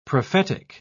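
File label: "prophetical", British English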